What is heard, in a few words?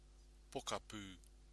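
"pokapu", Māori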